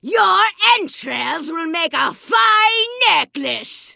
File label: Vrykul Female Magic User Pissed